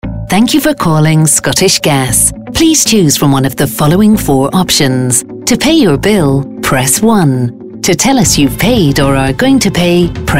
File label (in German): telefonansagen sprecher UK; Native Speaker